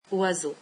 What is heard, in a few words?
"bird", French